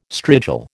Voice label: "strigil", American

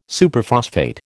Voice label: "Superphosphate", American

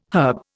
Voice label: "herb", Indian

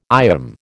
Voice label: "IOM", American

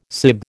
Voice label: "sib", American

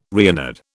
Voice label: "Reynard", British

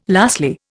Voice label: "lastly", Indian

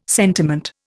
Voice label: "sentiment", Indian

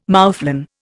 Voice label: "moufflon", Indian

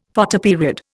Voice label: "Photoperiod", Indian; Dictionary

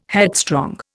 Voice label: "headstrong", Indian

